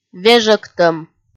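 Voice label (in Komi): "вежӧгтӧм", Komi, female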